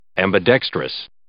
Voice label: "ambidextrous", English

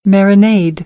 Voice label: "marinade", American; ɛ - æ

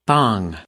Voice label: "bong", English; noun

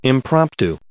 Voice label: "impromptu", English

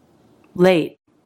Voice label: "late", English

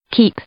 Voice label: "keep", English; i-ɪ; verb

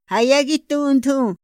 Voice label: "ha ya gi thunthu", Otomí; Días de la semana; INPI

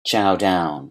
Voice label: "chow down", English

